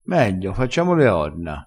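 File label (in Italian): "facciamo le orna", vocabolario dialettale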